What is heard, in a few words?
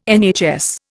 "NHS", Indian